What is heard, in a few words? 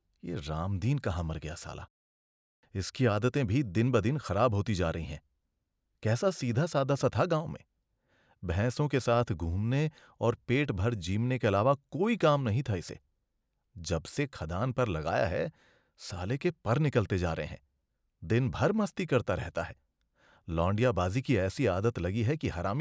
Hindi Audiobook Monologue; Hindi Audiobook – Reflective Monologue; Hindi Voice Samples